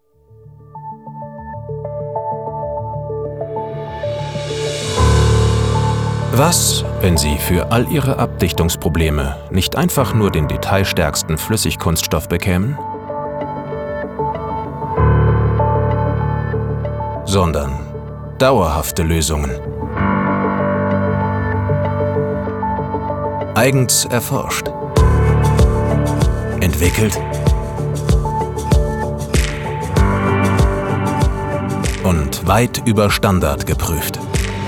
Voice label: Imagefilm, maenner

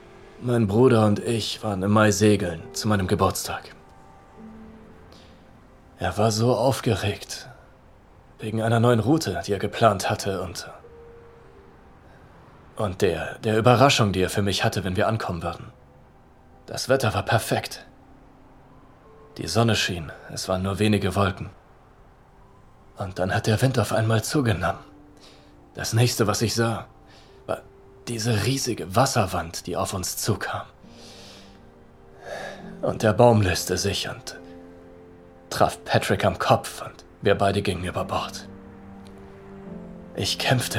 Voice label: Synchron, maenner; Good Sam

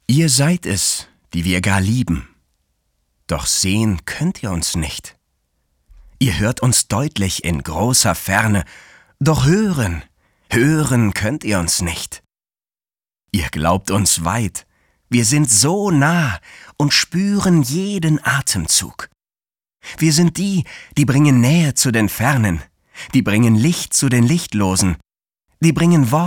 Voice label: poetisch, maenner

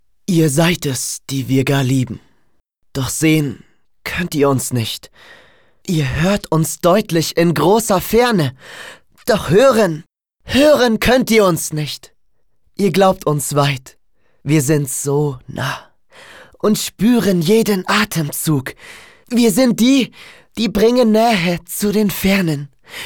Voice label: poetisch

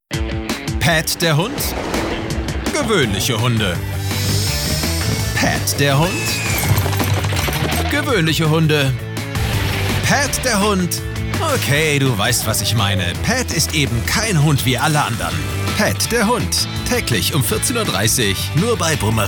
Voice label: Trailer; Warner; Boomerang; PatTheDog

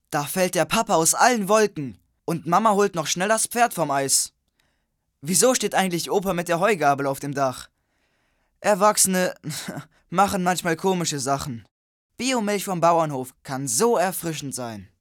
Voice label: Jungen; Biomilch

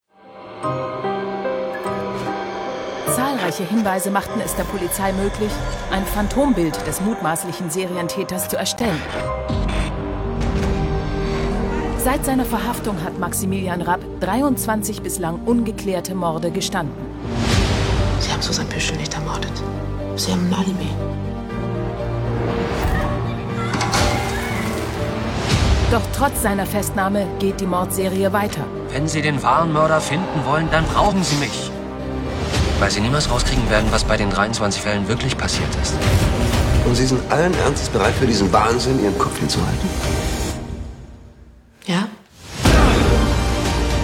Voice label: Trailer, frauen; Joyn; 23 Morde

